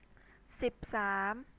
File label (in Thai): "sib saam", Thai